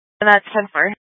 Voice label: Mutual Aid-Intersystem Interop B, scanner